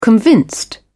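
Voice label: "convinced", British English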